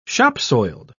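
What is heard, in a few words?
"shopsoiled", British English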